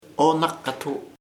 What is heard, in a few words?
"cactus cacto", chamacoco